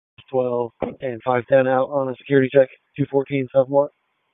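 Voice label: Raleigh Police Southeast District Dispatch, p25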